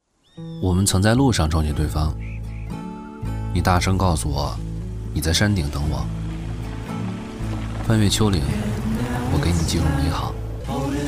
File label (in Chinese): 男, 旁白 素人旁白